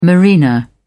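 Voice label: "marina", English, British English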